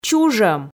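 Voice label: "чужӧм", Komi, female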